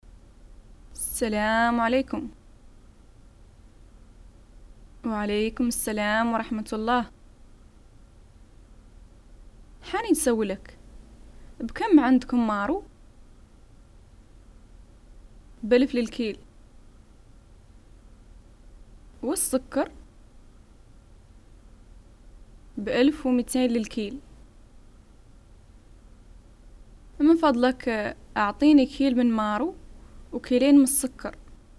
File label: dialogues, arabic, north african, hassaniya; purchase